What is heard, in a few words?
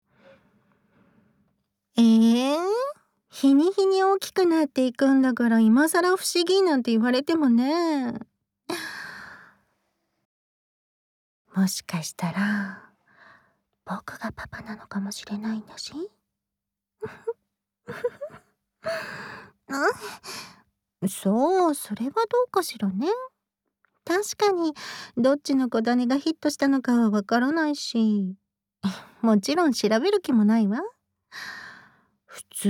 Whisper,ASMR